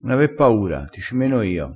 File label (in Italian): "mena", crespinese